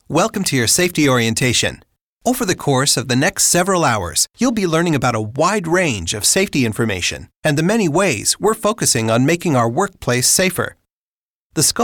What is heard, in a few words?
men elearning; Killer Branding Voice – Real Person, Cool, Conversational, Original, Big City, Small Town, Approachable, Best Friend.